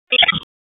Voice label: Police Tac, p25; Raleigh; Southeast District 1; wake